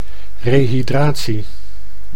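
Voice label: "rehydratie", Dutch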